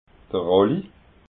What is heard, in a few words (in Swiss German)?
"rolli", alsace